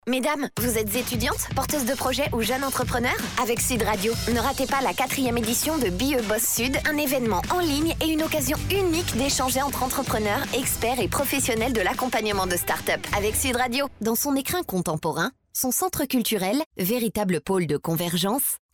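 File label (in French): Publicité, Comédienne, Voix off; Publicité LOGITECH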